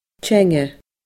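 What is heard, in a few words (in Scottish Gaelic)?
"teanga", Scottish Gaelic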